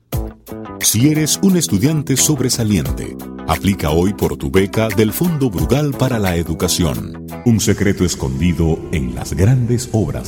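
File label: Latin American Spanish Commercial; GoLocalise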